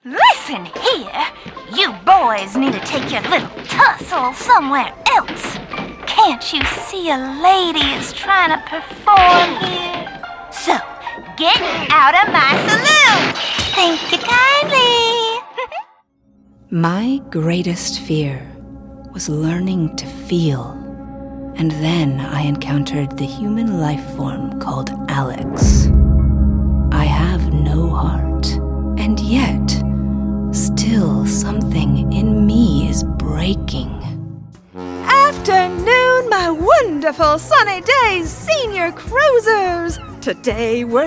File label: Animation